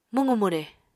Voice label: "mwqu-mwre", Apatani